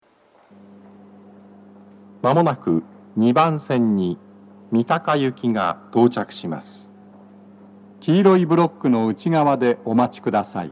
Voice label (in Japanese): 接近放送, 放送は「三鷹行」です。BOSE天井型での収録です。